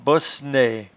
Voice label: "bossenaie", patois jura